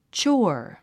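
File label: "chore", English; 発音 tʃɔ́ːr チョォワァ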